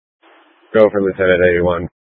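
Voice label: Incident Tactical 1, Scanner audio recording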